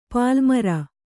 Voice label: "ಪಲ್ಲುಮೊರೆ", kannada